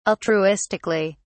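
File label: "altruistically", British English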